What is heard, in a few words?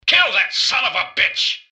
generic robot alert to combat; mrgutsy; npc